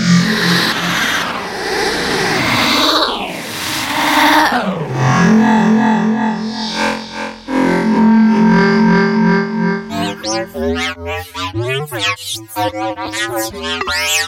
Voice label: Voice SFX; Future Samples